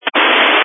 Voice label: Family Radio Service (FRS)